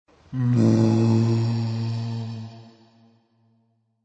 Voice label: Ghostly "Nooo", A ghostly voice; A ghostly voice saying; Horror